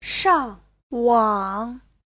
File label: "shang4wang3", Chinese; 上网; (động từ) lên mạng; Giáo trình chuẩn HSK3